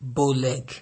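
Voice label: "bowleg", Nigerian Pidgin, male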